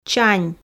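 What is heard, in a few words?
"чань", Komi, female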